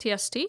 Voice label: female what is it; hellenes